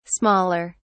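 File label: "smaller", English, British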